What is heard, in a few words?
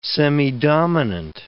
"semidominant", English